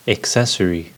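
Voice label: "accessory", American English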